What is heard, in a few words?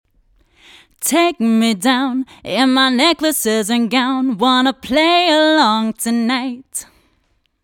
VOX DRY; Lewitt